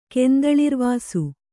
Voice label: "ಕೆಂದಳಿರ್ವಾಸು", Kannada; kendaḷirvāsu; noun